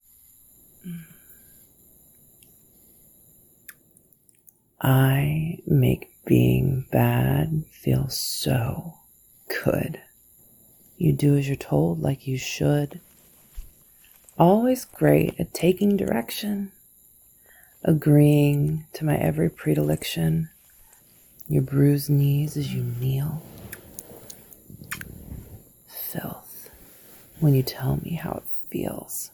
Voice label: Kissing, Gentle, Spanking, ASMR, Whispers; F4M; Poem; Gentle FDom; Wet Sound; Erotic audio; F4A; Intensity